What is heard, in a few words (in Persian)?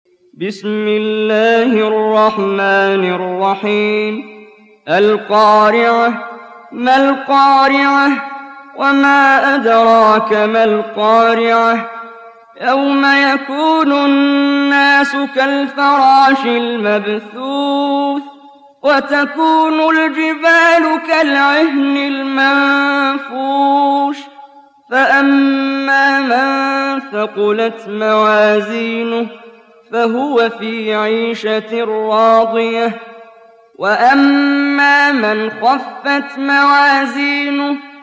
ترتیل سوره قارعه; استاد محمد جبریل